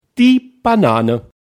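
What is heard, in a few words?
"banane", German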